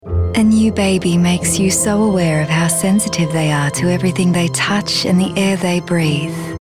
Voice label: Baby

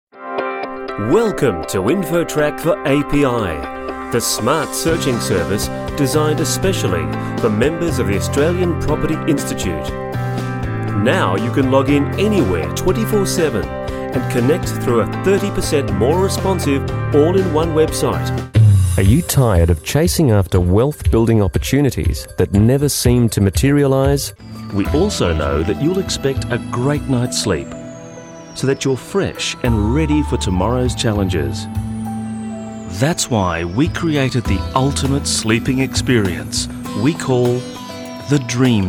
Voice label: corporate

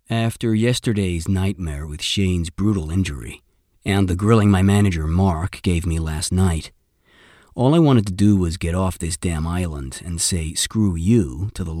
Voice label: US accent

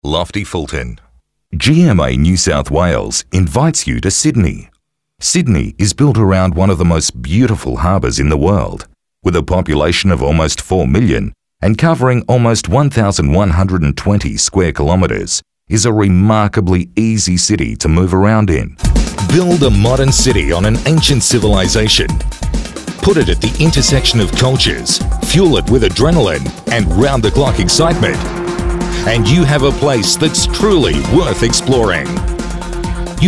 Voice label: corporate

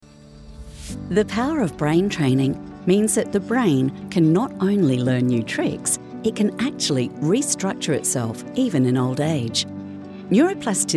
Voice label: Narration Read

